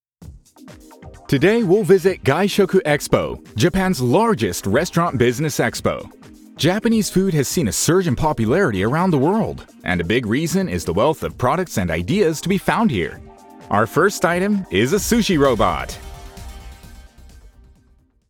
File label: sample tv proglam